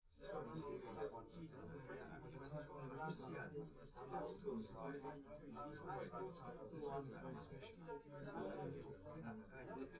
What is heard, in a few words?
male live rec